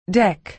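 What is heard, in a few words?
"deck", American English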